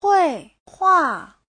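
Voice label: "huìhuà", Mandarin Chinese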